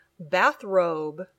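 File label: "bathrobe", English; palabra del dia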